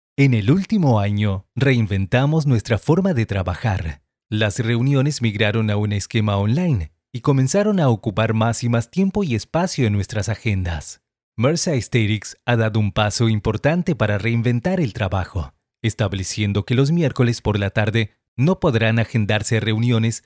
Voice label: spanish latin american corporate videos